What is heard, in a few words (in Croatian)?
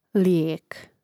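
"lijek", Croatian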